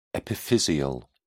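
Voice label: "epiphyseal", British English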